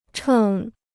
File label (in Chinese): "秤", Chinese